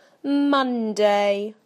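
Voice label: "Monday", British English; PictureDictionary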